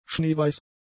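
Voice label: "schneeweiß", German; adjectives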